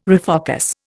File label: "refocus", Indian